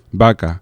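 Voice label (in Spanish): "vaca", Spanish; laboratori fonetica; oclusives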